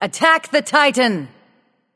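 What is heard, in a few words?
attack, male; smite; medusa; voicepack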